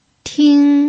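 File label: "ting", Mandarin Chinese pinyin, first tone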